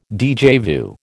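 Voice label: "déjà vu", American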